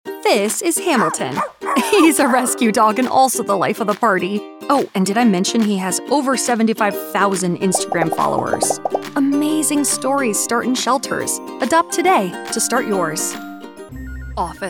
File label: Commercial Demo